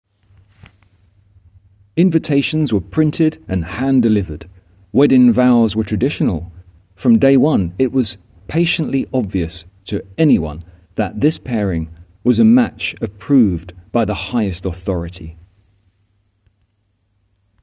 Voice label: voiceover